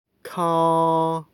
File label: "kaa", Cantonese